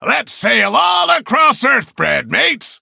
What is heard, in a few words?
level up, en; cookie run